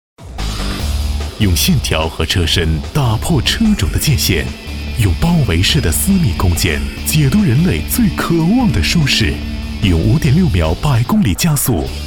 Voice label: 广告 奥迪A7

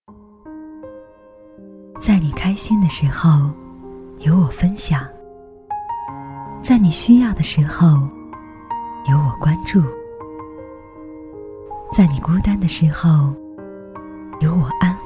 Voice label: 女 旁白 知性 端庄; 深圳航空

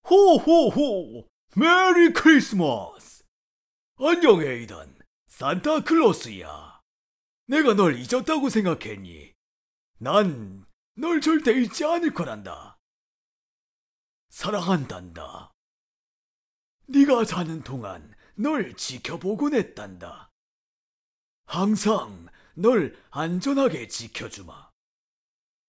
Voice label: 角色 老人

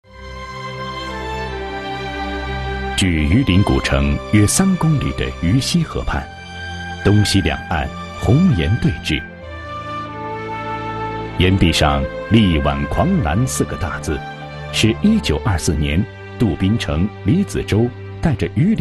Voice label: 纪录片 解说片段; 央视; 人民英雄刘志丹

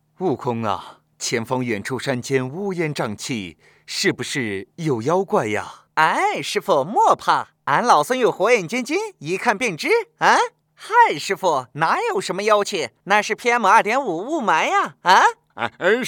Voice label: 男 配音; 神还原西游记

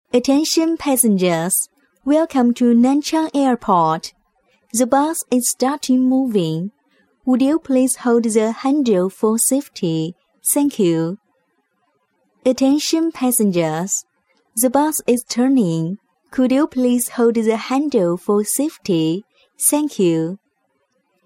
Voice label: 英女 机场语音; 南京飞机场语音; NanChang airport; 甜美亲切

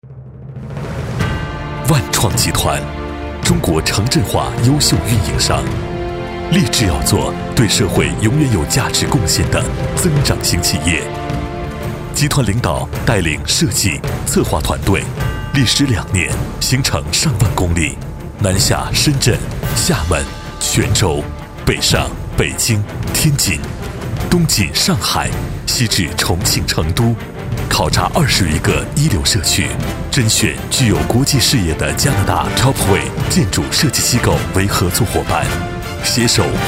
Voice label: 男60 专题; 国语男声配音; 交广主播; 万创世纪城

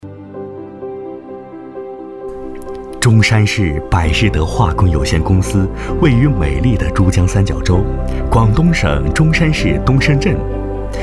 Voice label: 企业宣传片; 百事德化工集团

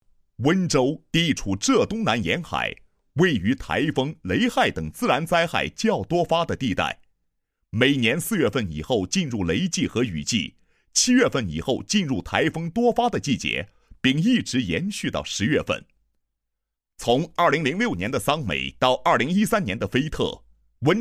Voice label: 男 企业汇报 配音; 温州供电公司抵御 莫兰蒂 台风工作汇报

